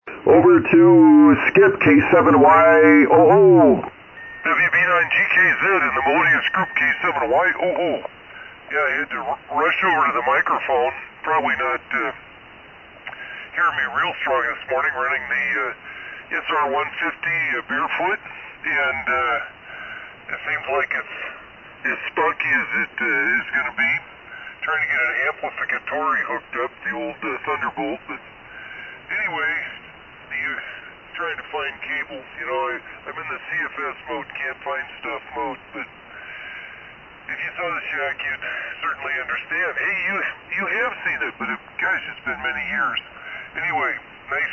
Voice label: Hallicrafters SR-150; Winona, WI.